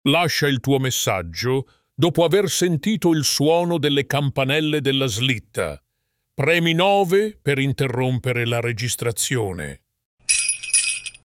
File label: voicemail, italian